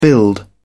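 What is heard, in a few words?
"build", British English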